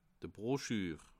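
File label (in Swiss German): "brochur", Bas Rhin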